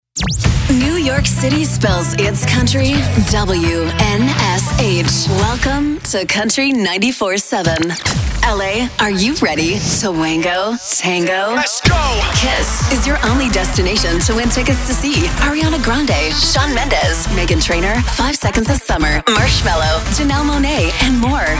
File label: Radio Imaging